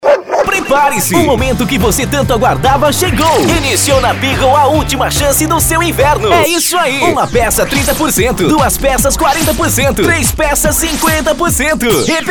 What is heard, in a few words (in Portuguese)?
spot; beagle